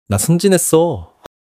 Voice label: joy